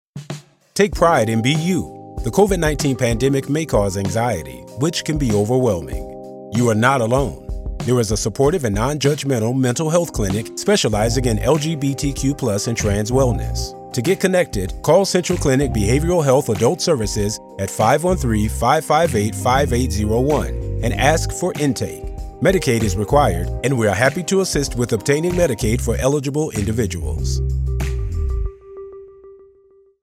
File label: male